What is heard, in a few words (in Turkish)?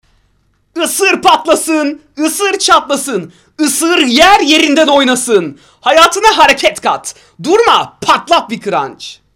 reklam